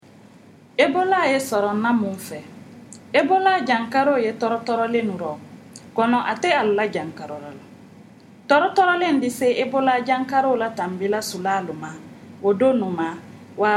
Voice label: malinke; ebola; radio-spots; vhf